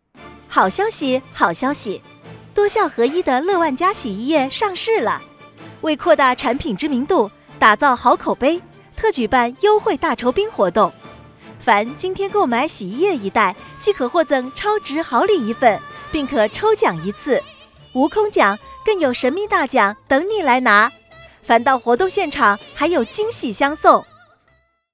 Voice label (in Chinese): 促销